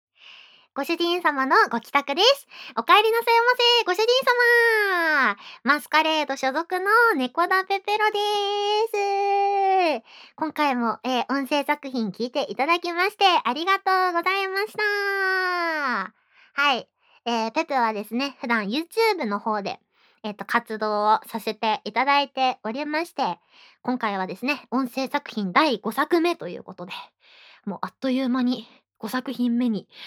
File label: free talk, ASMR